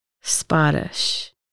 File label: tobacco "sbadəs", Lushootseed